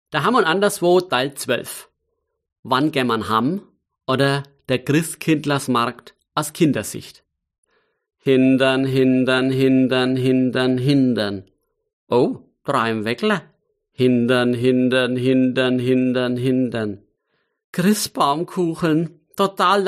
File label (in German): Mundart